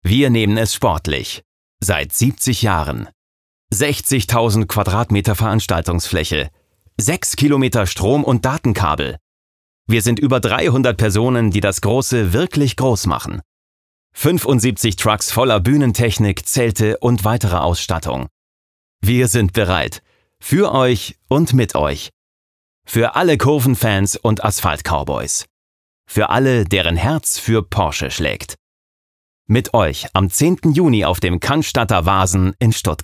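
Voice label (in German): advert, Dynamisch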